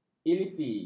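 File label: "elisi", Malay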